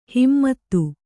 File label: "ಹಿಮ್ಮತು", Kannada